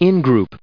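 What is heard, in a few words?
"in-group", US English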